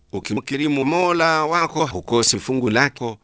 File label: swahili proverb